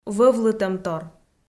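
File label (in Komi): "вӧвлытӧмтор", Komi-Zyrian, female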